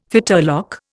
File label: "fetterlock", Indian